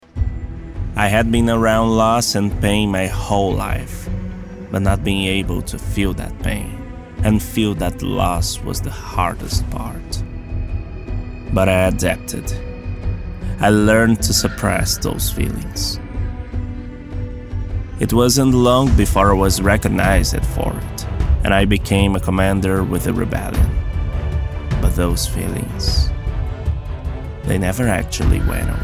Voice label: MOVIE TRAILER ENGLISH VOICEOVER SUSPENSE DENSO DARK